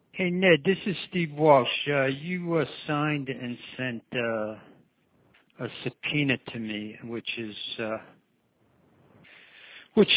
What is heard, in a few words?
Voicemail Threat